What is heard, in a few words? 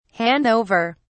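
"hand over", British English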